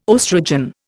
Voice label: "oestrogen", Indian